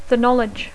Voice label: "The Knowledge", English; Dacco